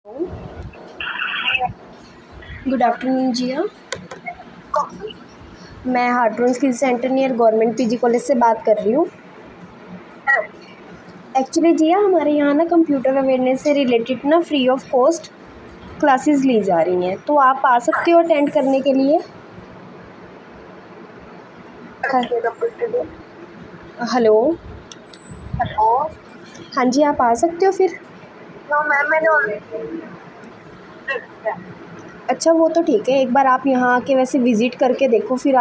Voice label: call recording